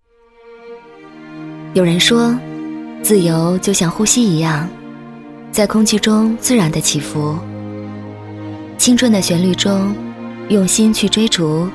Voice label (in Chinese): 女 微电影 青春的旅途自述, 国语女声配音